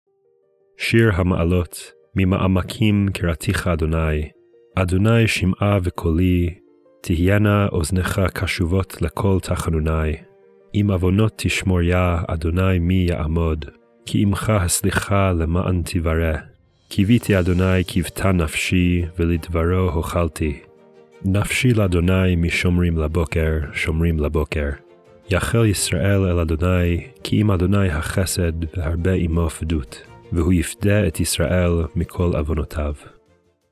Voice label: poetry and prayer psalm hebrew